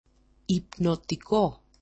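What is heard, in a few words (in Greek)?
"ipnotiko", Greek; mod.gre: υπνωτικό; hypnotic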